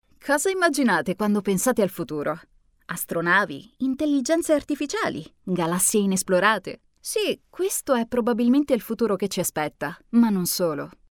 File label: italian commercial